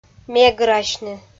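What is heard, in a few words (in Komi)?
"меграсьны", Komi-Zyrian, female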